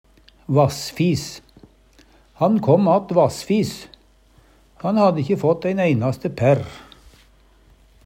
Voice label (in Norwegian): vassfis, Numedalsmål; Han kom att vassfis. Han hadde ikkje fått ein einaste pærr.; Adverb